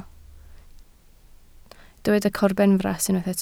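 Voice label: "corbenfras" Welsh, spoken word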